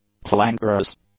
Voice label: "clangorous", English; adjectives

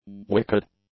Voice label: "wicked", english; adjectives

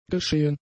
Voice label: "geschehen", German; adjectives

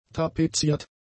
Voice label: "tapeziert", German; adjectives

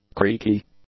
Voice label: "creaky", English; adjectives

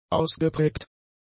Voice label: "ausgeprägt", German; adjectives

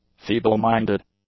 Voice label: "feeble-minded", english; adjectives

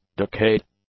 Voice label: "decayed", english; adjectives

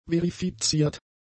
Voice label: "verifiziert", German; adjectives

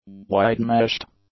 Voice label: "wide-meshed", english; adjectives

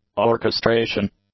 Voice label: "orchestration", English; nouns

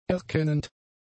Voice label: "erkennend", German; adjectives

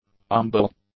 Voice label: "umbel", English; adjectives

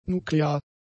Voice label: "nuklear", German; adjectives

